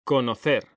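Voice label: "CONOCER", Spanish; mekanta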